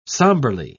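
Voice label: "sombrely", British English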